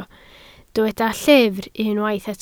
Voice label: "llyfr", Welsh